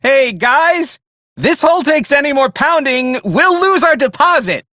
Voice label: Ship Low, Male; Dialog; Warframe; Railjack Crew; Perrin Sequence